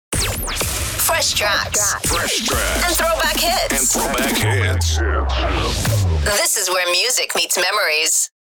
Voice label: Female radio voice dj sweeper fresh tracks and throwback hits